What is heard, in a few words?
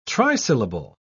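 "trisyllable", British English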